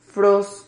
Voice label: "fros", Silabas

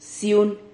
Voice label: "ciun", Silabas

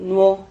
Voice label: "nuo", Silabas

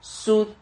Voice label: "sud", Silabas

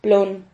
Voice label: "plon", Silabas

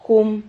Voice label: "jum", Silabas

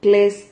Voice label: "cles", Silabas

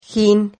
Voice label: "gin", Silabas

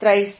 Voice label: "trahis", Silabas

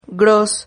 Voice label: "gros", Silabas

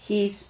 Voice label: "jis", Silabas

